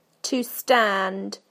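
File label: "to stand", British English; PictureDictionary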